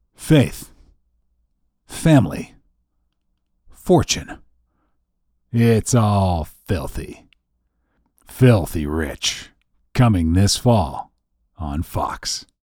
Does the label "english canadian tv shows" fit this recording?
Yes